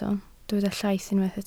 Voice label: "llaith", Welsh

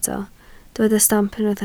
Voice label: "stamp", Welsh

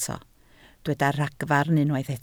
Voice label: "ragfarn", Welsh

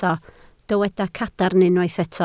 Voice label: "cadarn", Welsh

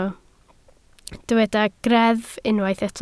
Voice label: "greddf", Welsh

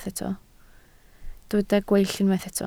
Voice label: "gweill", Welsh

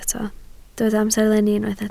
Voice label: "amserlenni", Welsh

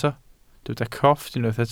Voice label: "crofft", welsh

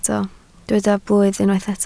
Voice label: "blwydd", Welsh

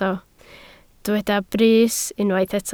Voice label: "brys", Welsh

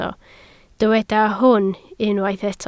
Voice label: "hwn", Welsh

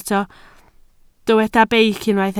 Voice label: "beic", Welsh

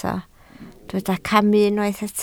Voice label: "camu", Welsh

